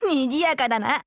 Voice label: PHRASE JP; C14